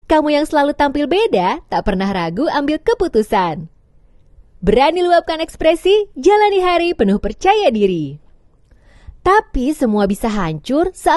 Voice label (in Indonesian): Bahasa Indonesia Voice Sample